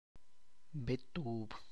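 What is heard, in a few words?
tlacochahuaya, Zapotec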